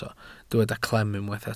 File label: "clem", Welsh